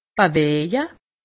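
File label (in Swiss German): "beweje", Alsatian; medecine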